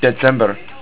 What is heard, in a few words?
December, estonian